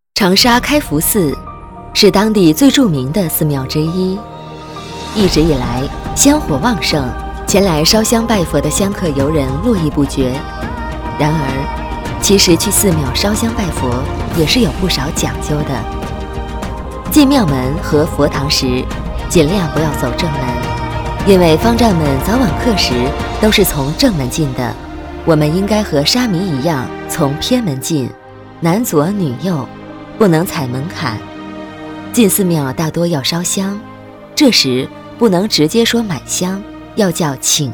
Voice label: 女9-专题, 女; 开福寺

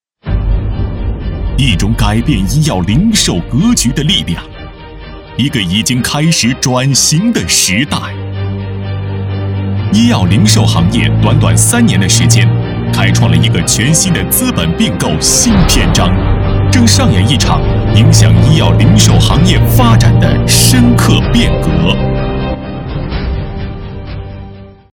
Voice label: 男 专题 医药零售

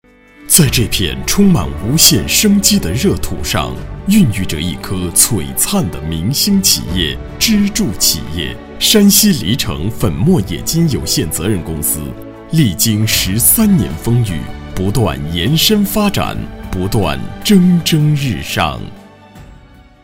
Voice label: 男 专题 宣传片; 山西黎城

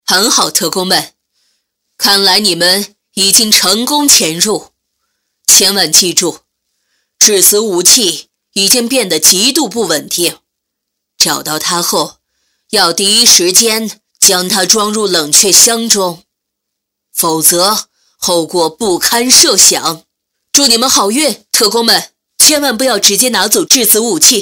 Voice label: 女 动漫 女特工对讲机

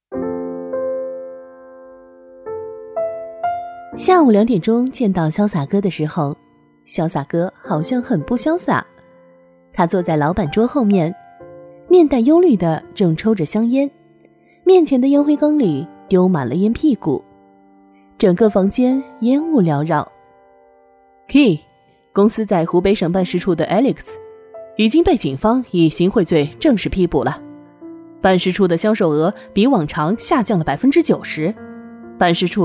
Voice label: 女 小说片段都市剧

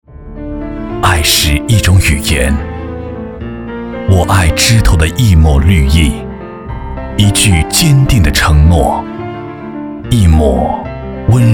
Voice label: 男 专题 珠宝高端广告; 周大福

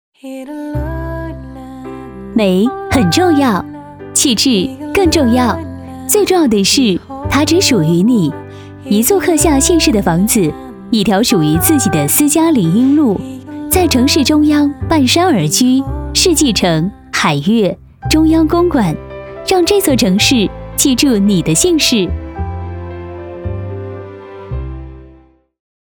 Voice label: 女4-广告, 女; 世纪城

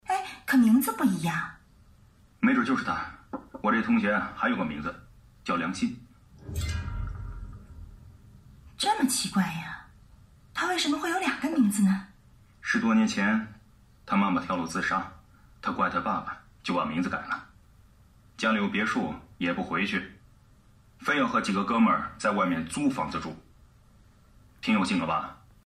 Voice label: 男 广播剧 角色配音

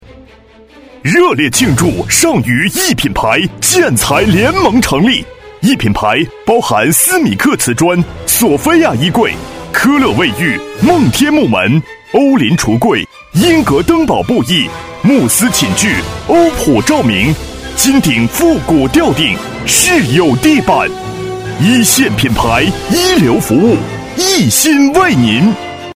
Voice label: 男, 广告, 品牌激情气势

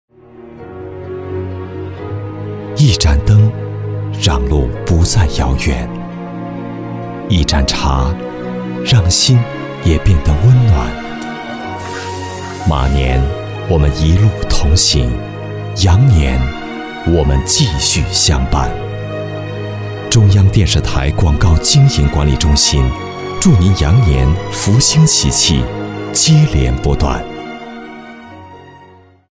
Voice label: 男, 广告; 央视广告中信

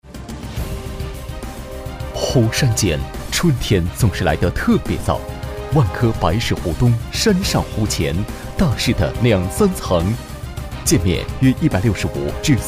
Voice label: 男 广告; 万科地产

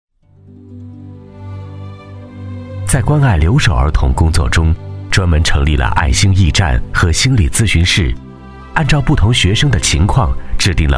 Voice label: 男 专题 亲切感; 上饶市第十二小学

